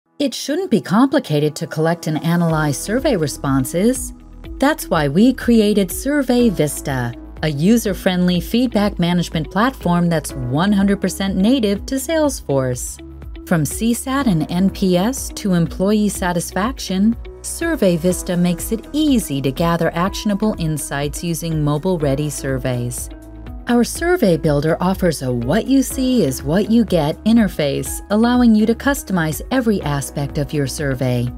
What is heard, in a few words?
With Music; SurveyVista